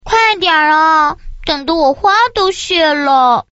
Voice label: quick chat voice, girl